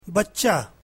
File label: urdu child